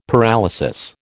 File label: "paralysis", American